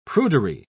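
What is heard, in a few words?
"prudery", British English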